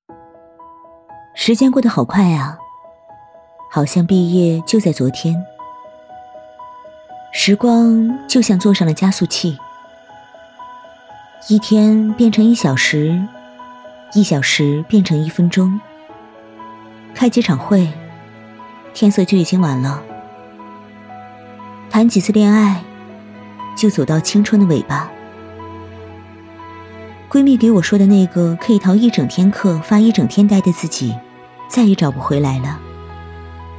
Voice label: 素人 青春 大学生自然

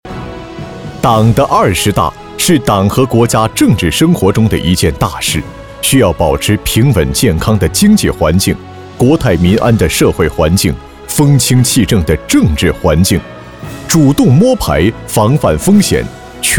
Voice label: 男 政府专题; 二十大

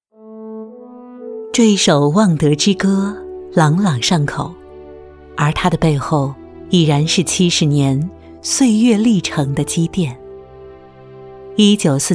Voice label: 女 沉稳专题; 学校; 望德70年

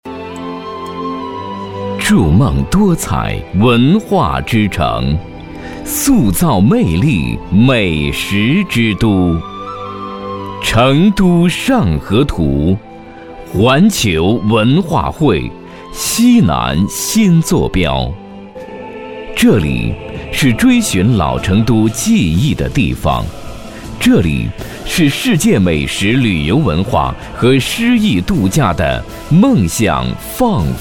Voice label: 男 宣传; 成都上河图

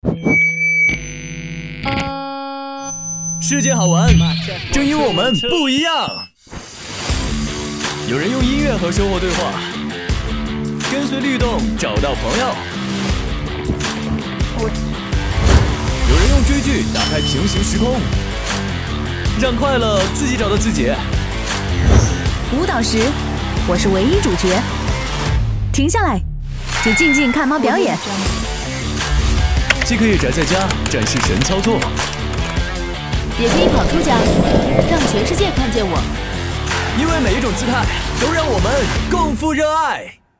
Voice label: 男 宣传片 科技感; 联想828超级联萌节

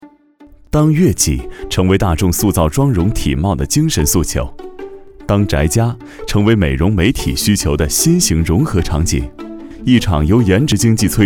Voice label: 男,宣传片,年轻,亲和,介绍

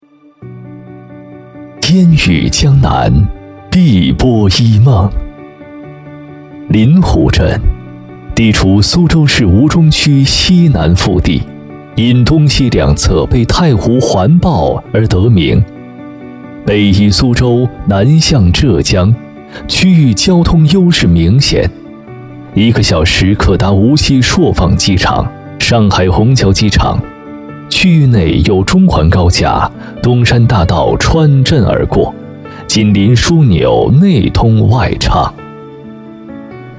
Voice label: 男 宣传片 娓娓道来; 苏州生态规划

